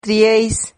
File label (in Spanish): "trieis", Silabas